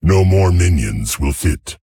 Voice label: Male Tauren, ERROR FULL MINIONS